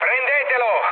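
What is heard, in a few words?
Italian attack position mood med, speech; War Thunder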